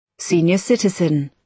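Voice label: "senior citizen", British English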